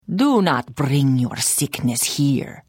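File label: Hello, Khajiit female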